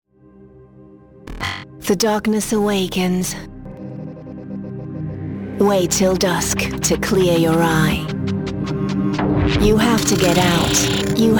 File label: Commercial; Materia